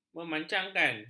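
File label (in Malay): "memancangkan", Malay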